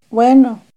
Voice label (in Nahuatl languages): "huenoh", Náhuatl; bueno; NAHUATLAHTOLLI